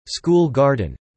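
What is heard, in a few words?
"school garden" spoken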